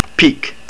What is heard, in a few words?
Long, estonian